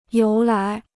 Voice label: "由来", Chinese

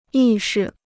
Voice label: "意识", Chinese

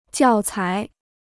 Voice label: "教材", Chinese

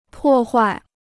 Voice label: "破坏", Chinese

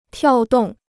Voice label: "跳动", Chinese

